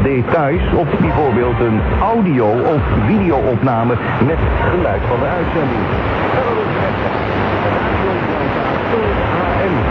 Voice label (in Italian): Radio Delta International, 12030 kHz, olandese; Degen DE 1103; filo 5m indoor; St. Urs